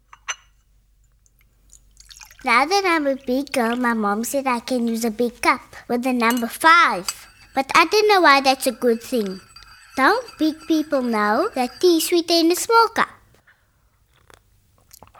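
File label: english South African female commercial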